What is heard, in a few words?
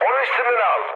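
Turkish aircraft damaged, mood med, speech; War Thunder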